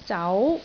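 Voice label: "zau2", Cantonese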